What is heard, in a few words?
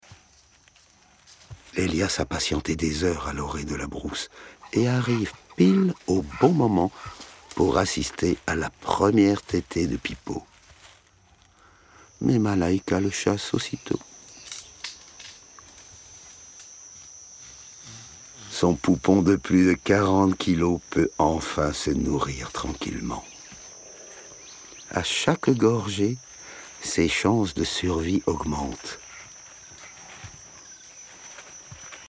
Narration Rhinoceros